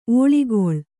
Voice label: "ಒಳ್ಗಾಲ", Kannada

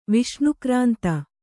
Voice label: "ವಿಷ್ಣುಕ್ರಾಂತ", Kannada

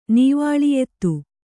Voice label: "ನೀವಾಳಿಯೆತ್ತು", Kannada

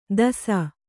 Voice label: "ದಸ", Kannada

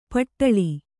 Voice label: "ಪಟ್ಟಳಿ", Kannada

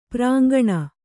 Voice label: "ಪುರಾಂಗನೆ", Kannada

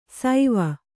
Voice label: "ಸೈವ", Kannada

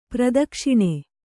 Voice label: "ಪ್ರಾದಕ್ಷಿಣ", Kannada

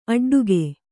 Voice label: "ಅಡ್ಡುಗೆ", Kannada; aḍḍuge

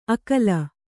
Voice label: "ಅಕಲ", Kannada

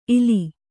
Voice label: "ಇಲ್ಲ", Kannada

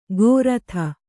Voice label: "ಗೋರಥ", Kannada

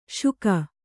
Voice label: "ಶೂಕ", Kannada

